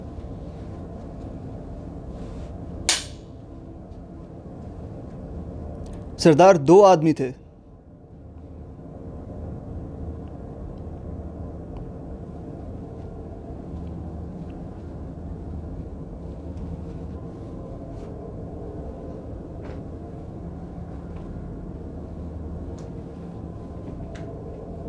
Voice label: bollywood dialogue